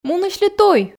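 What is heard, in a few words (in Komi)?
мунысьлы туй, Komi, female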